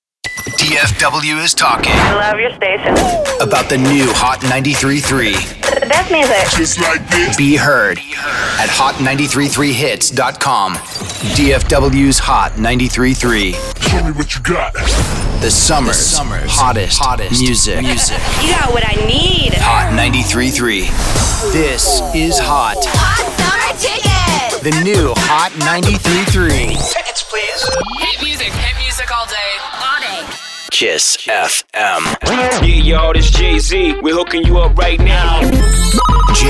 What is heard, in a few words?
Imaging Urban